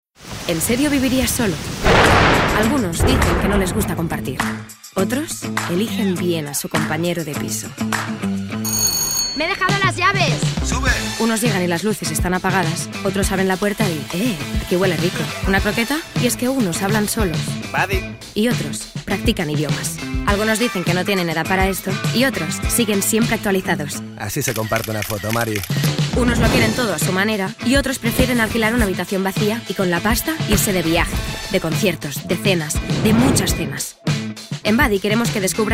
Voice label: female spanish app comercial; ideasonora

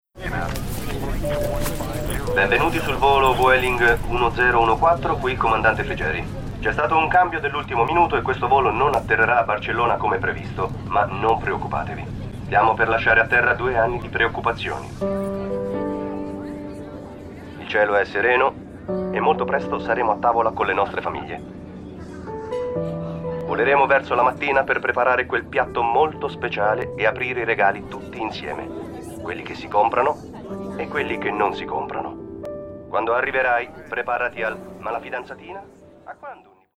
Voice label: male italian comercial

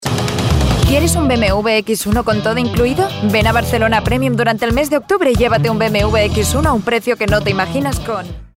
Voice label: female spanish car comercial; ideasonora

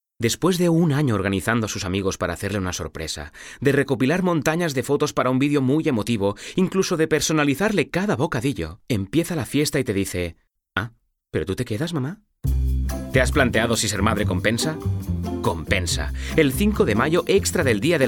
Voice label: male spanish comercial; ideasonora

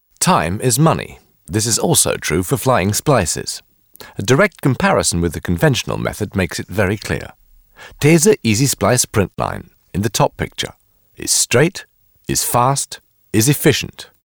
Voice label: male british english commercial; ideasonora

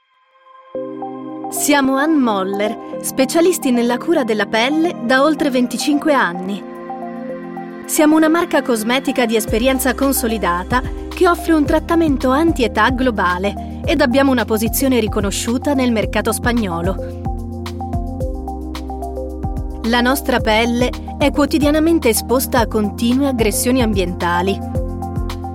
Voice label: female italian comercial; ideasonora

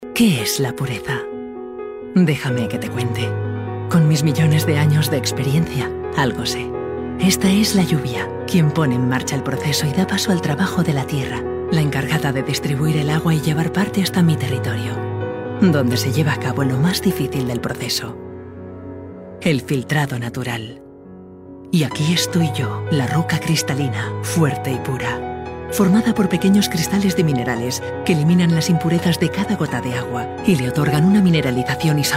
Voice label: female spanish water comercial

